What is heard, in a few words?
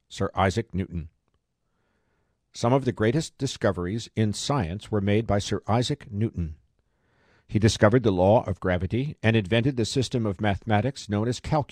Speech Quiet